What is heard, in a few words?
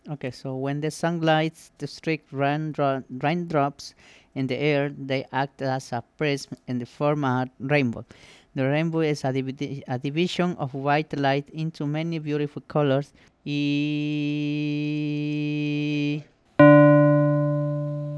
pre post fem